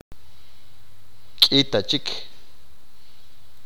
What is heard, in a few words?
scarce, achi